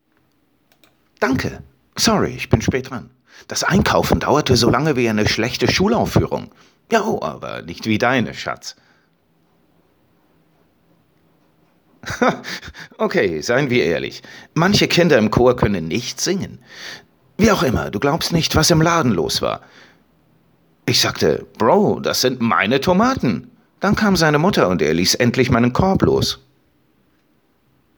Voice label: character, German, male